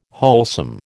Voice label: "wholesome", American

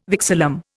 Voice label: "vexillum", Indian

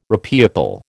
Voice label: "repeople", American

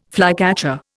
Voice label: "flycatcher", Indian

